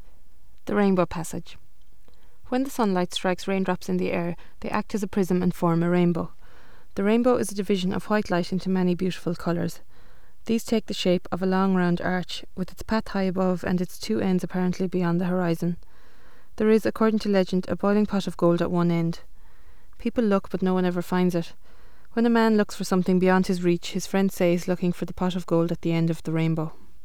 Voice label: rainbow passage hiberno english

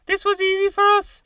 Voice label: male falsetto

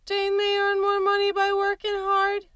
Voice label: female falsetto

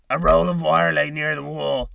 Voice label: male harsh

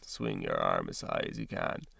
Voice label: male creaky

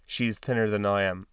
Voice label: male modal

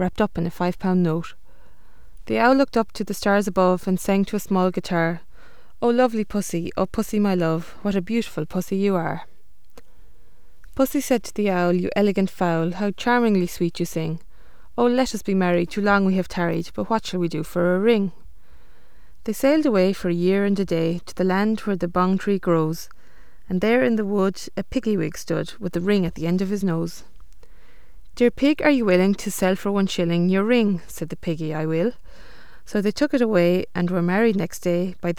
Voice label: owl and pussycat hiberno english

